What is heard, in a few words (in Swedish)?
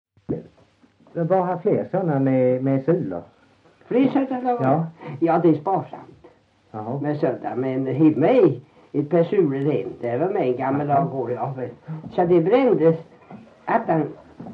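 Värö Halland, dialekter svenska sagor; Folkminnen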